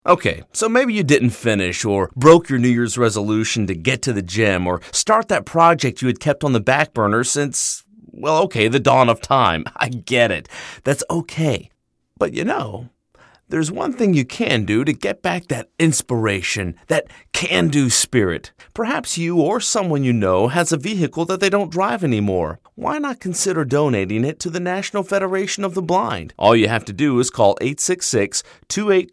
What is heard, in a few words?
car donation generic no music; vehicle donation; nfb